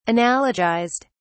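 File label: "analogized", British English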